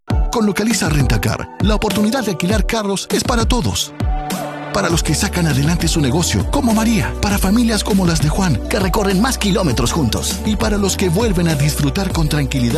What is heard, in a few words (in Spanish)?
Locutor, Locutor Neutro; LocalizaRentacar Colombia